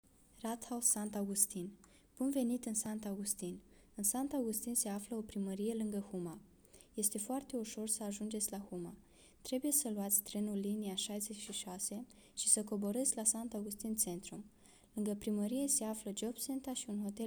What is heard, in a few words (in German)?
Rumanisch; Rathaus Sankt Augustin